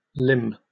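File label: "limn", British English; pronunciations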